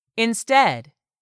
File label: "INSTEAD", english; 3000 words